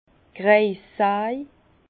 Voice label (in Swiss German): "kreissaj", Bas Rhin; vin; scie circulaire